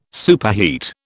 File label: "superheat", British